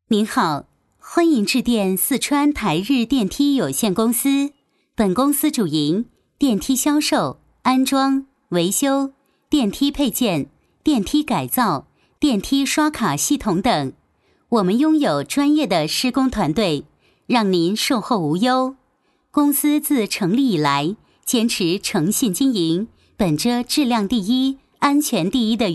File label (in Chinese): 女 彩铃 样音